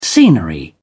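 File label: "scenery", British English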